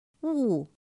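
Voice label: "ù", chino mandarin; pinyin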